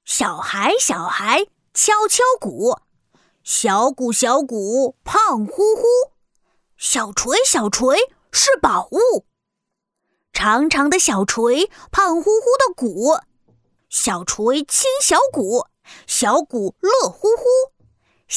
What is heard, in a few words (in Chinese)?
女 童声模仿 男童